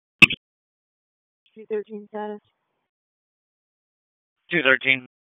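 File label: Raleigh Police Northeast District Dispatch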